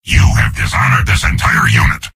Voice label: soldier jeers; source engine; tf; mvm